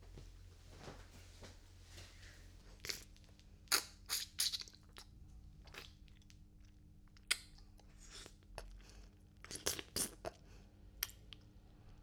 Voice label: ボイスドラマ 指舐め 耳舐め バイノーラル; 「ちゅぱった指舐めたい?耳舐め…してあげます」

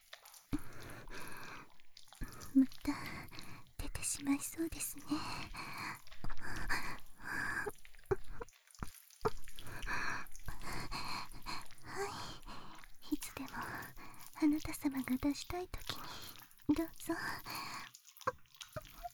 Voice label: エンディング バイノーラル 効果音あり, ASMR

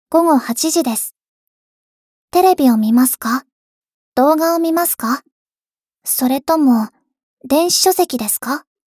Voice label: 時報ボイス 電脳少女; ボイスコレクション 電脳少女編

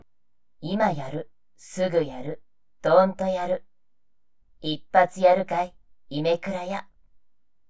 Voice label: タイトルコール

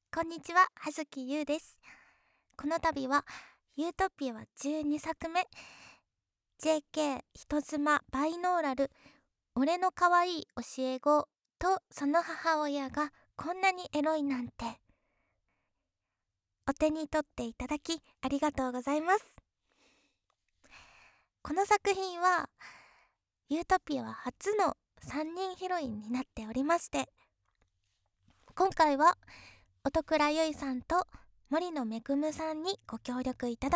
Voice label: フリートーク, ASMR

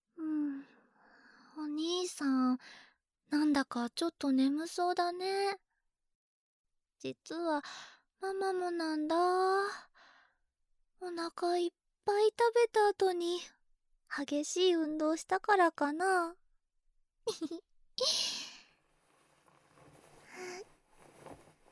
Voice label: 添い寝音声; ましろママ

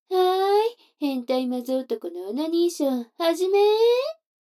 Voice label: 女の子台詞, ASMR

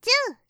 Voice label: "ツー", カウント

